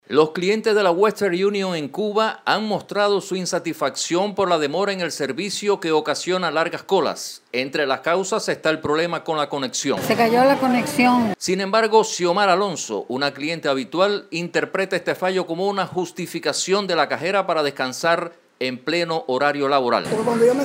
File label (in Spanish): Audio Reportaje; Western